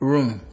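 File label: "room", Nigerian Pidgin, male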